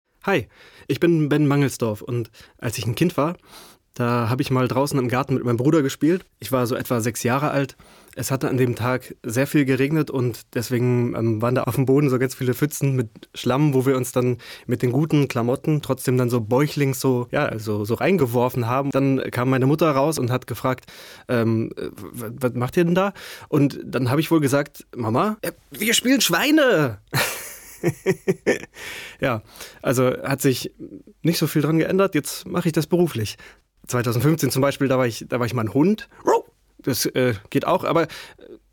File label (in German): Natuerliche Stimme